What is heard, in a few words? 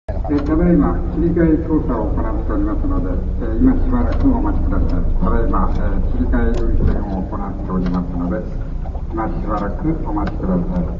announce